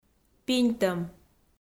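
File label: "пиньтӧм", Komi, female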